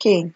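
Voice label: "cane", Nigerian Pidgin, female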